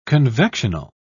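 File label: "convectional", British English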